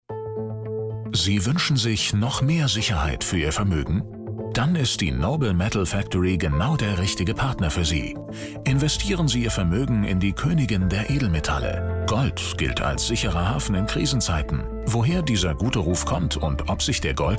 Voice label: Infomercial; Noble Metal Factory